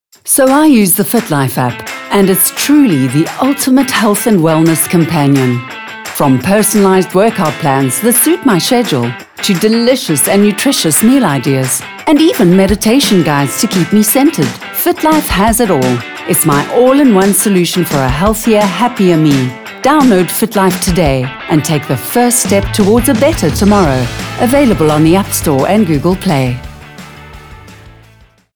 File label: Medium Sell; FitLife; South Africa